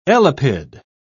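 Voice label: "elapid", British English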